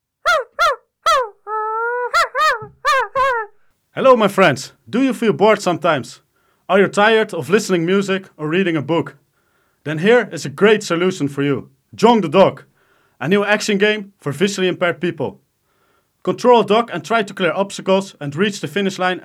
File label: Advertisement; ACEone